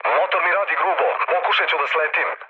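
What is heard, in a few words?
Serbian damaged engine mood low, speech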